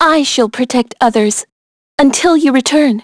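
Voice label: Vox Victory, English; Jane L; kings raid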